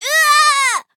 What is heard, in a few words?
hit fr; Cookie Run